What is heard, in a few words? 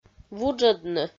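"вуджӧдны", Komi, female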